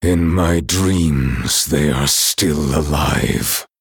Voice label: Male Night Elf; Kurtrus

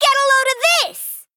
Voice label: Female Goblin Attack

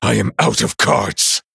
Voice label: Male Night Elf No Cards

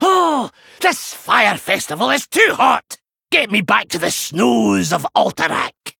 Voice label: Male Dwarf FIRE FESTIVAL

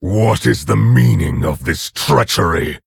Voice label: Orc Emote Start; Nerzhul; Guldan

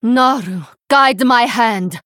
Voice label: Female Draenei Attack

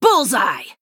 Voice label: Female Human Attack

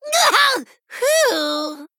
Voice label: Male Gnome Death

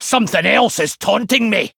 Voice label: Male Dwarf ERROR TAUNT

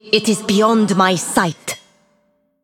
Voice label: Female; Iron Vrykul; ERROR STEALTH

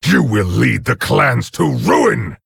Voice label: Orc Emote; Nerzhul

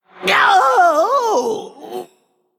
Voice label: Male Forsaken Death

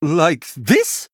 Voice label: Male Blood Elf Attack

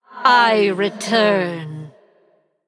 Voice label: Female Ghost Play

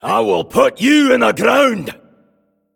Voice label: Male Earthen Dwarf Attack

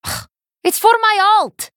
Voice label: Female Draenei Attack

